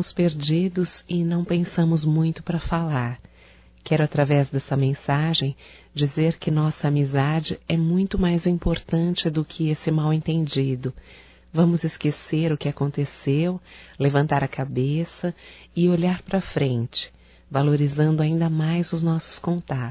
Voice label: ACEITO DESCULPAS AMIGA (F), Telemensagens, Românticas, fem

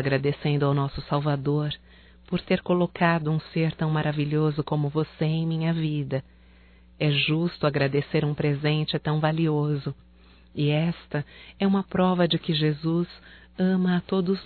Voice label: NATAL IRMA (F); Natal e ano novo; fem; Telemensagens 2017

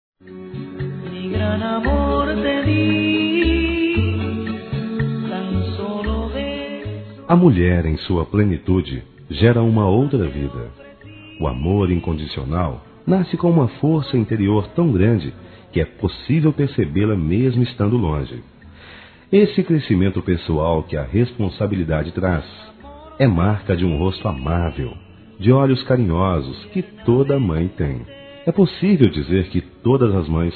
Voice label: SOGRA M; Dia das Mães; Masc; Telemensagens 2017

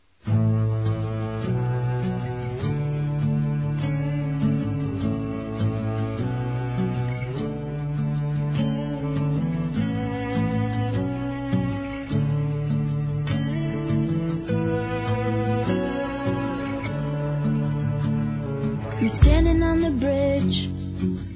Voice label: Aniversário Romântico Suave Feminino; Aniversários

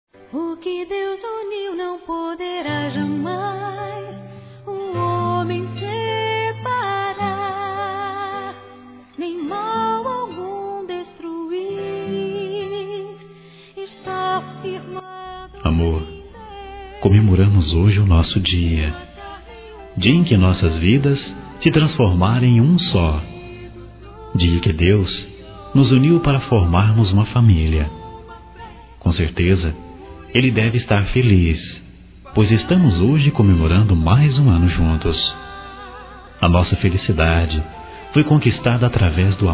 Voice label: ANIV. CASAMENTO EVANGÉLICO M

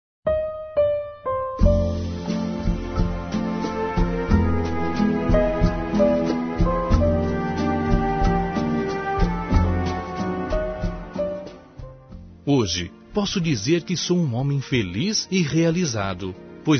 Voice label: Natal e Ano Novo Sogra Masculino; Telemensagens; Misto

